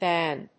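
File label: "Bahn", English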